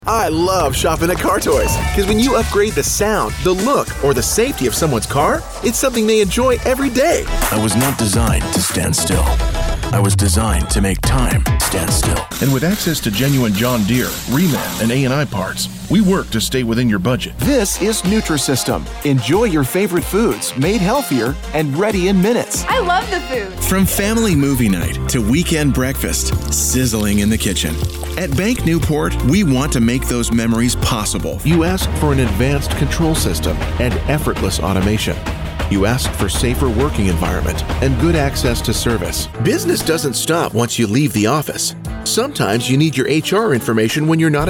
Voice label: Commercial